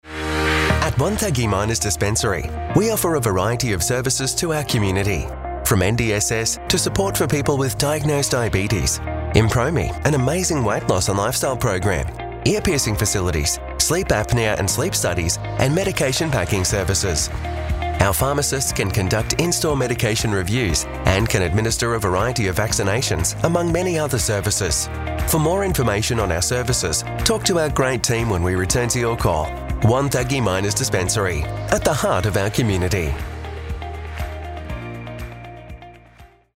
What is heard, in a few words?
On Hold Message; Wonthaggi